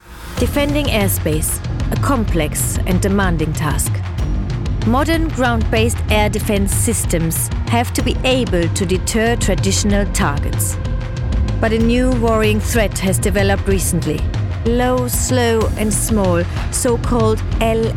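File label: English Narration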